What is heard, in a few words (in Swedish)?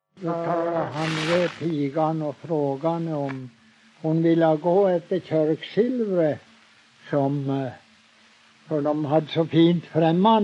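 Huddunge Uppland, dialekter; Folkminnen; Svenska sagor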